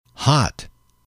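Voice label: "hot", English, male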